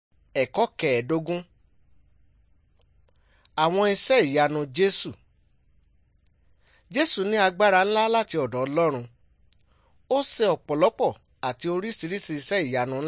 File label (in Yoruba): good news yoruba